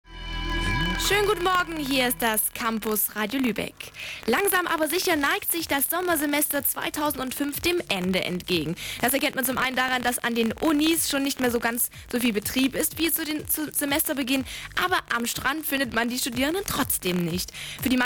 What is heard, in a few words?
CampusRadio OpenHouse Announcement